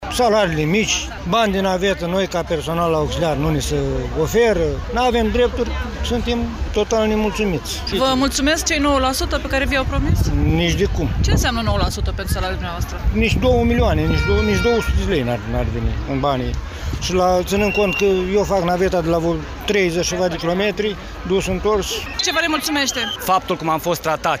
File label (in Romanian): vox pop protest